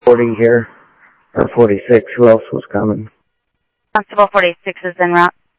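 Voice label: Sheriff West County, scanner audio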